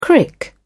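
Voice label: "crick", British English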